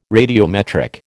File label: "radiometric", American